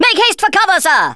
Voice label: take cover, Speech Thespian; Worms Armageddon

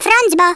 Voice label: BUMMER, Speech Hungarian; Worms Armageddon

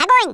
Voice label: fire, Russian

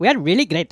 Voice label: "brilliant", Speech; Worms Armageddon; The Raj

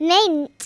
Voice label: brilliant, Speech Kidz; Worms Armageddon

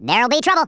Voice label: you'll regret that, US Sports, Speech

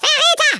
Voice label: TRAITOR, Speech, German; Worms Armageddon

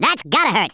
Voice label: "uh oh", US Sports

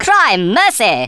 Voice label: uh oh, speech; Worms Armageddon

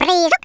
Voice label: jump, Russian

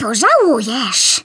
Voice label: YOU'LL REGRET THAT, Speech, Polish; Worms Armageddon

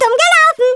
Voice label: STUPID, Speech, German; Worms Armageddon

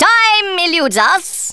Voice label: hurry, Speech Thespian; Worms Armageddon

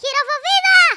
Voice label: "Incoming", Greek; Worms Armageddon

